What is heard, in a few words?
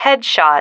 "headshot", female